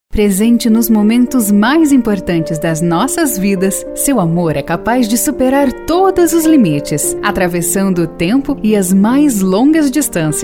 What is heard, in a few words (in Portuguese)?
locutora